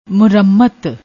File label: "mooramat", Punjabi